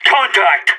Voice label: radio taking fire